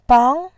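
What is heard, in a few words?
"bang", Mandarin Chinese, tone 2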